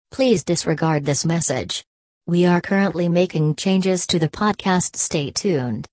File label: podcast announce